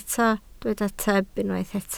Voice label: "tyb", Welsh